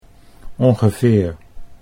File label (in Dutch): "ongeveer", Dutch